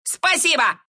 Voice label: thanks, ru; Meepo

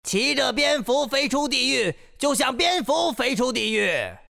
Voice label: respawn, zh; Dota 2

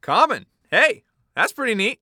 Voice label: Announcer Lobby Card Common; paladins

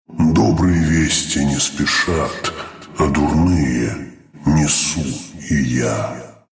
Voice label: level up ru; dota2

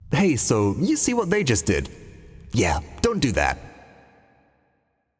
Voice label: Announcer Match Slain Enemy; paladins

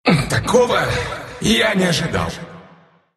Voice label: death, ru; dota2

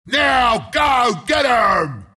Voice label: announcer respawn; bristleback

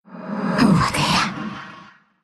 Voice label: attack, ru; dota2

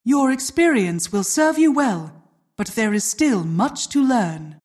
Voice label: announcer welcome; Dota 2

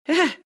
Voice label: happy; Tinker

